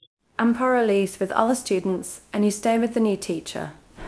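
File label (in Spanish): Voice Acting, Spanish, female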